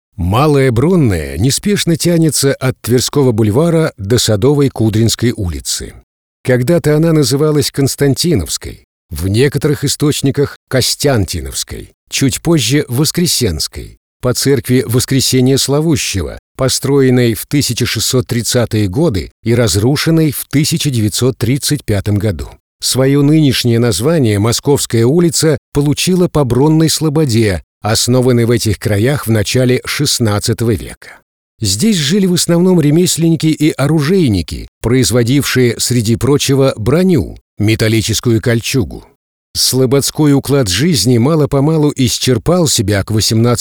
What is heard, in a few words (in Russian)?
audiogid; Malaya Bronnaya